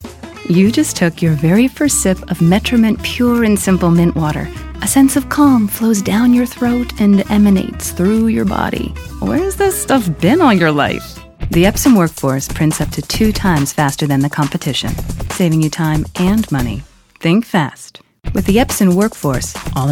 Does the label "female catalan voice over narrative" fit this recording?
No